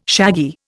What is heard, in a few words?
"shaggy", Indian